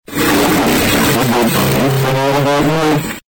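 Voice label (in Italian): "rischio", Italian; Rischio, beveva, lì salendo dormì!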